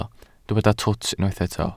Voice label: "twt", Welsh